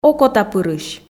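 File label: "окотапырысь", Komi, female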